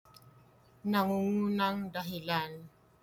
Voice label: Tagalog; top reason - nangungunang dahilan